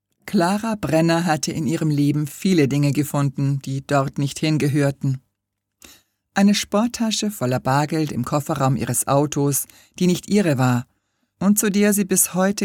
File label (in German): Hoerprobe, sprecher